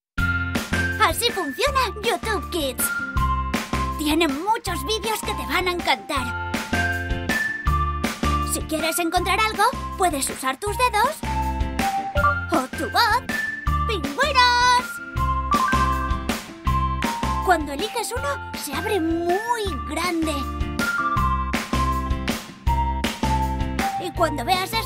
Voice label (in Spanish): CASTELLANO LOCUTORA INFANTIL NINO; YOUTUBEKIDS